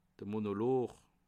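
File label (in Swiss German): "monolog", Bas Rhin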